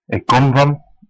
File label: i'm from, west flemish